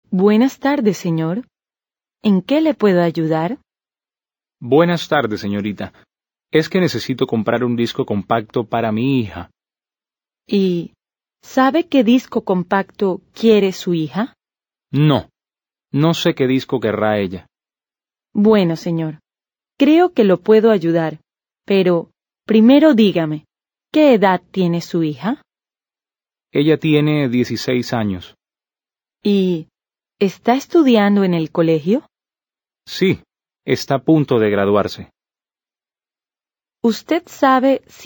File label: Dialogue, Spanish